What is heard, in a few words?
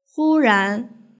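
"huran" Chinese word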